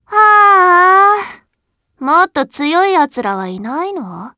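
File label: Vox Victory, Japanese; Viska; kingsraid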